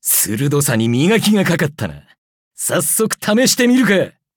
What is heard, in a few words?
levelup, ja; Cookie Run